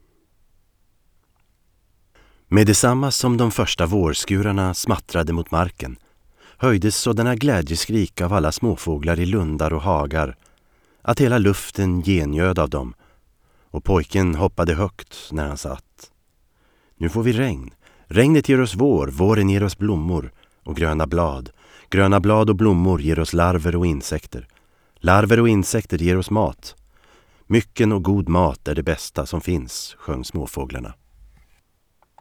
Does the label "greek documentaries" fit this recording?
No